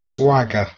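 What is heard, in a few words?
"uh2ge", Proto-Indo-European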